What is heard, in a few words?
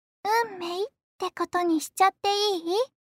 contest voice